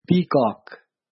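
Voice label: "peacock", English; grade4; vertebrates invertebrates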